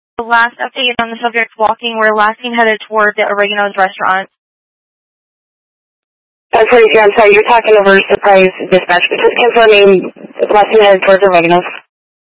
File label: Mutual Aid-Intersystem Interop B, scanner